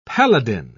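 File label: "paladin", British English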